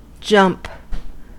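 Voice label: "jump", US English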